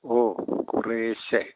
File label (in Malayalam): yes a little, Malayalam